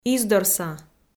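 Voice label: "издорса", Komi, female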